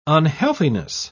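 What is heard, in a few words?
"unhealthiness", British English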